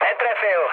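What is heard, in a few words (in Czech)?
Czech bomb failure, mood low; War Thunder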